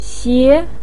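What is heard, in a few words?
"xie2" Chinese syllable, pinyin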